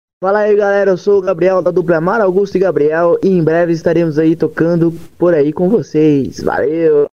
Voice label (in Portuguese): vinheta